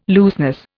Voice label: "looseness", Indian

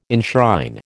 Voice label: "enshrine", American

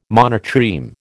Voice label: "monotreme", American

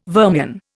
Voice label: "vermian", Indian

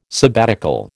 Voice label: "sabbatical", American

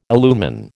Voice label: "illumine", American

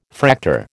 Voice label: "Fraktur", American

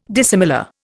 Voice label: "dissimilar", Indian

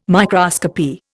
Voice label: "microscopy", Indian

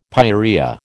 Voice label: "pyorrhoea", American

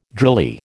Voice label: "drily", American

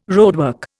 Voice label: "roadwork", Indian

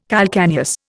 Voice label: "calcaneus", Indian

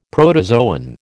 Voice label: "protozoan", American

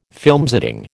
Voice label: "filmsetting", American

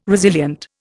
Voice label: "resilient", Indian

